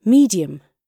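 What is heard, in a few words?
"medium" British English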